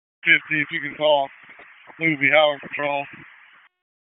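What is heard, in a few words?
Sheriff's Office Dispatch 1, p25; wake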